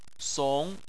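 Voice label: "song", Chinese, second tone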